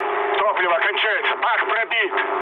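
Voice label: tank damaged, mood med, Russian; War Thunder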